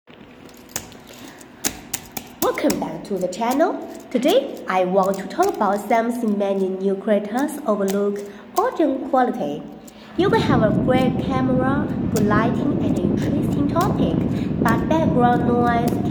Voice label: indoor podcast original